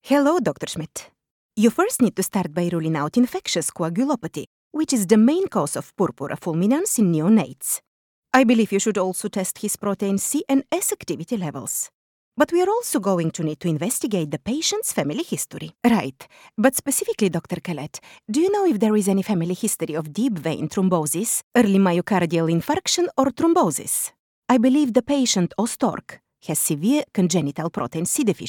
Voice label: English with Russian accent medical training video